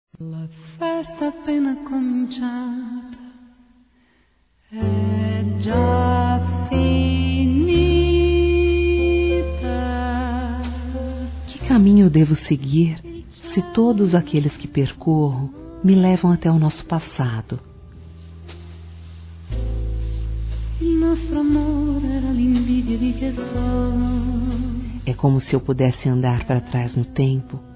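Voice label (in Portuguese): saudades de amor, female; Dia Dos namorados; Telemensagens 2017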